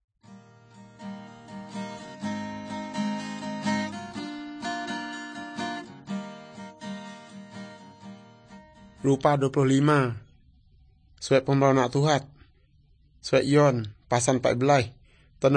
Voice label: good news, urak lawoi